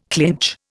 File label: "clinch", Indian